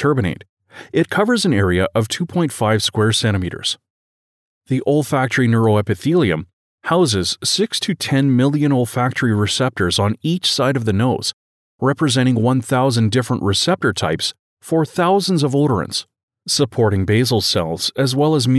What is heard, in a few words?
Cerebral Nerve Disorder Module